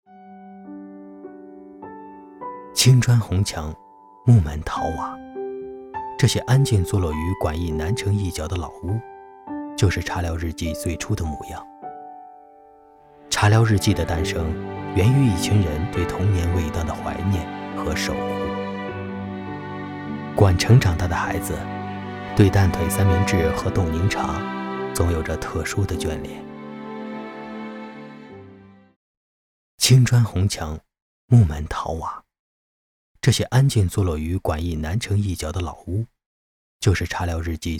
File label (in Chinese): 讲述感; 茶聊日记